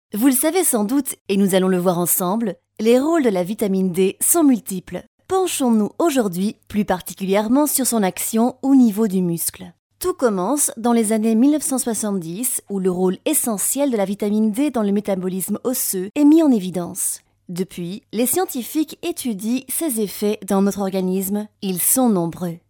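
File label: French, France, female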